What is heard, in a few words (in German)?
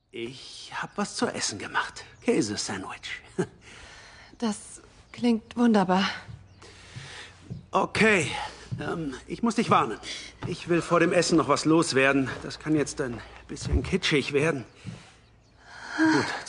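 Synchron, Sprecher, Männer; JaneTheVirgin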